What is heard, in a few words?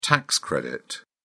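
"tax credit", British English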